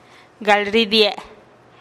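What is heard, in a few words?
"gal ridie-e", Teotitlán del Valle Zapotec; salir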